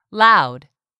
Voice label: "loud", English; 3000 words